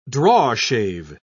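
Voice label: "drawshave", British English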